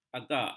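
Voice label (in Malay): "agak", Malay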